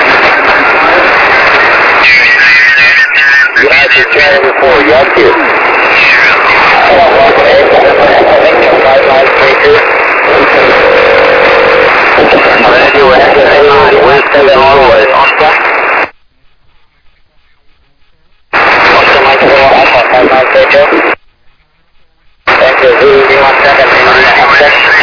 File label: USB 14MHz, amaterske radio; CQWWSSB